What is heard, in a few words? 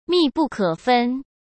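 "密不可分", Mandarin Chinese; hsk; chinese-flashcards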